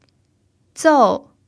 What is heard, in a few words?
"zou4", chinese